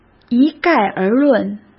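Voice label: "一概而论", Mandarin Chinese; chinese-flashcards; hsk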